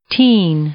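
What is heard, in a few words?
"teen", English, female; noun; i-ɪ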